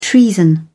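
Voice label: "treason", British English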